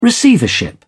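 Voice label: "receivership", British English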